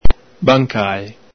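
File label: "bancale", Veneto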